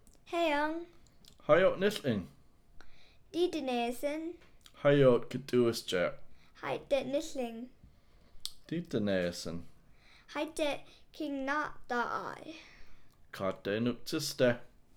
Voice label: conversation, Hupa